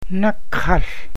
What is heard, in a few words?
Yellowberry (Cloudberry), Gwich'in; Nakał